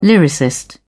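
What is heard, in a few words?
"lyricist", English, British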